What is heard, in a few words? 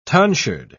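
"tonsured", British English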